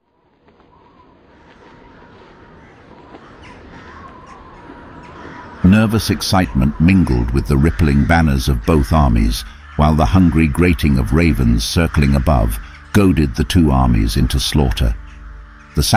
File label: Narration